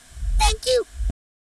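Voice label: Voice Acting es, female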